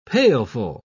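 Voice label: "pailful", British English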